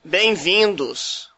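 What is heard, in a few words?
welcome, Brazilian Portuguese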